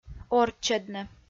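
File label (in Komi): "орччӧдны", Komi, female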